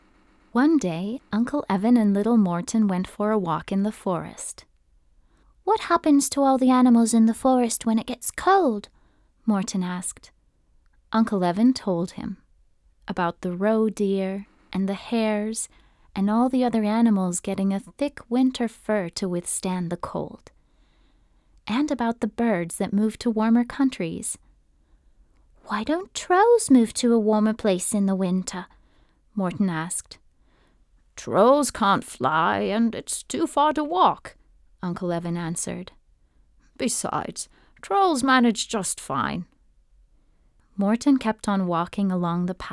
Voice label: Childrens book; Trolls